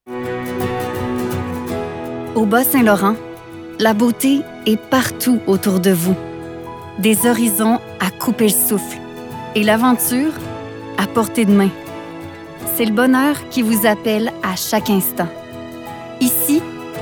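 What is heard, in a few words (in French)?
démo de voix pub; bas st laurent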